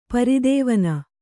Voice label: "ಪ್ರಧ್ವಾನ", Kannada

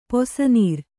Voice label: "ಪಿಸನಾರಿ", Kannada

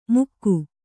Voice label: "ಮುಕ್ಕು", Kannada

